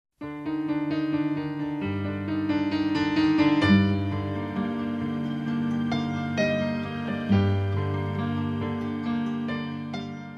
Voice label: yingyu nv; foreign